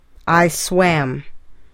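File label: "I swam", English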